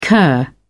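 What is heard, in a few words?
"kir", British English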